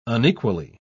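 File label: "unequally", British English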